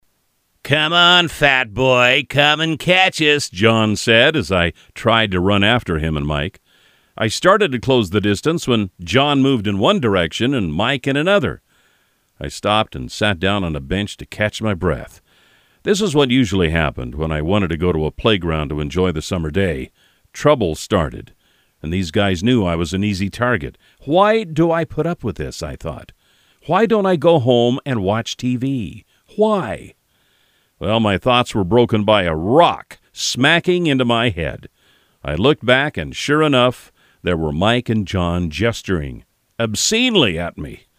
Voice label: audiobook